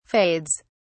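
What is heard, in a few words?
"fades", British English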